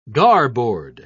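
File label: "garboard", British English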